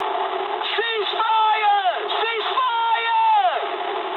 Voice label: eng player friendly fire mood high, speech eng

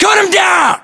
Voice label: order attack infantry, US; localized english; battlechatter

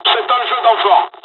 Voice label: japanese first wave, dialogs french; War Thunder

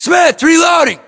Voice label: inform reloading, US; battlechatter; english

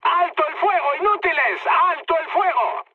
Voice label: player friendly fire, mood high, speech

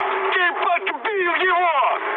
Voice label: player killed enemy, mood high, speech ru

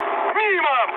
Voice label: bomb failure, mood med, speech ru; War Thunder

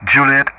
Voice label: "Juliett", ENG; Ka-50; DCS

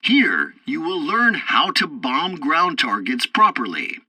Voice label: tutorial bomber intro, english; War Thunder

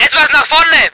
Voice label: voice ger vehicle

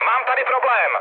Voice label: aircraft damaged, speech cze, mood high

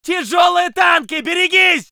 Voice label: voice sov infantry

